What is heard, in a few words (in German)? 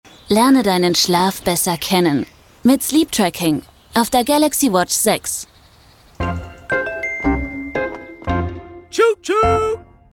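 Werbung, Swiss German, flippig frech verspielt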